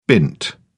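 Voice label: "bint", English, uk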